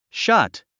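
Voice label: "shut", English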